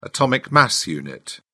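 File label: "atomic mass unit", English, uk British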